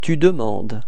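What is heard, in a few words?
"demander tu", French; frans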